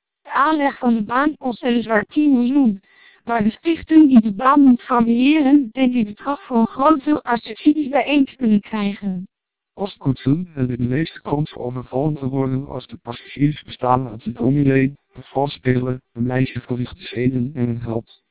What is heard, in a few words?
dutch short